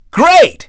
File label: dj nice; DJ VOICE; Nintendo; July 2020 Gigaleak